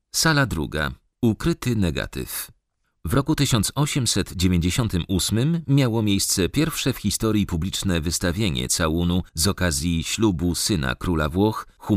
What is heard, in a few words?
locutor polaco polish voiceover